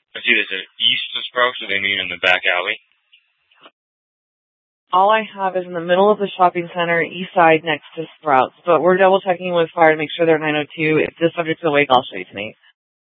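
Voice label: police dispatch, Scottsdale PD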